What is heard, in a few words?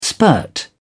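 "spurt", English, British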